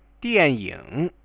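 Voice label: "dianying", Chinese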